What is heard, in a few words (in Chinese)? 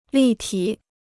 "立体", Chinese